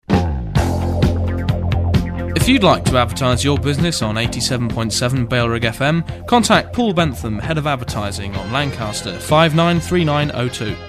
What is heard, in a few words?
male; bfm jingles ads RSL 1995